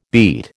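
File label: "beet", American English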